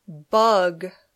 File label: "bug", English